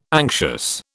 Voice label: "anxious", British